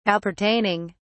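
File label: "appertaining", British English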